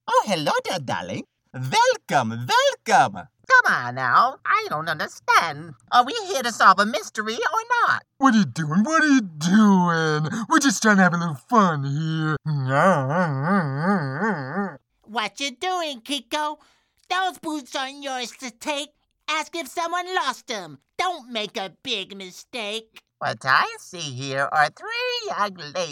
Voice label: animation

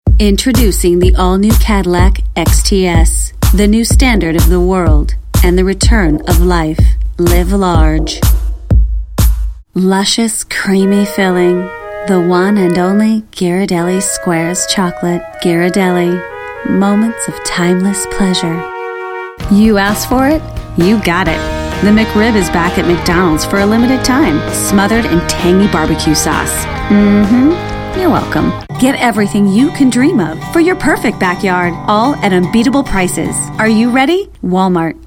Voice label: commercial

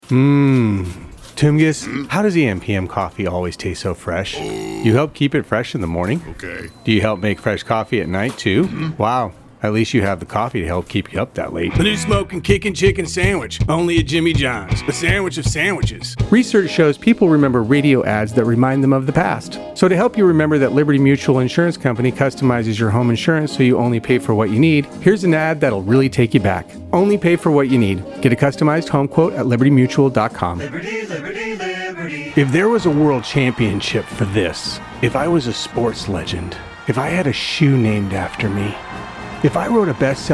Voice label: Commercial

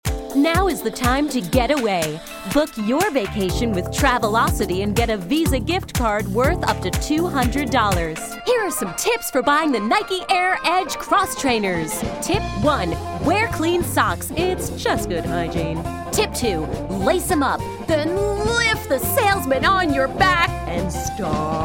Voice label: commercial

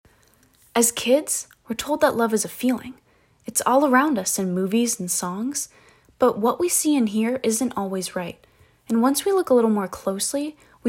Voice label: Youth

